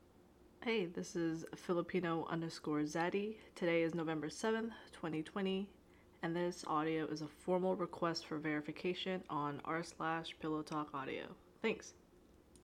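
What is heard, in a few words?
Verification, female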